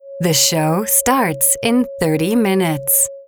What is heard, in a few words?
EN W